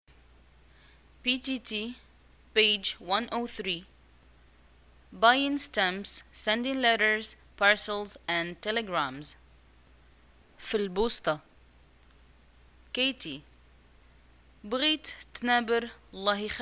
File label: dialogue, Arabic, North African, Moroccan